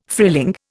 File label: "frilling", Indian